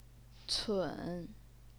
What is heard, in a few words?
"cun3", Chinese pinyin